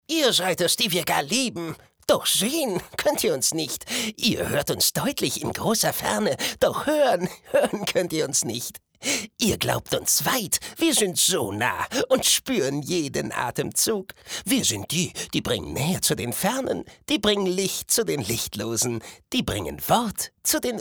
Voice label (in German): charge, maenner